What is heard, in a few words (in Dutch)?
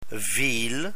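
"viel", Dutch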